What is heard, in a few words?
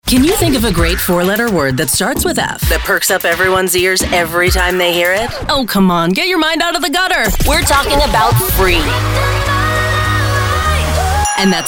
VO AC Hot AC; voice-over demos